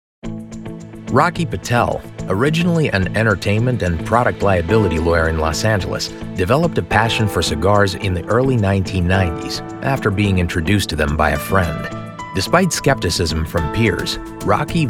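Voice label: CORP EXPLAINER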